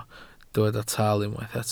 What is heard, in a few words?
"taal", Welsh